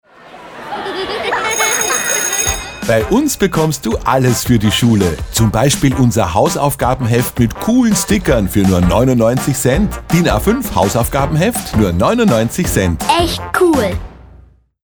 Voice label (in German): Radio POS Sprecher